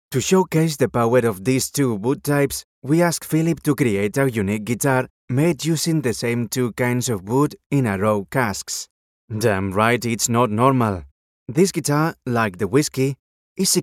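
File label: male, Spanish, accented English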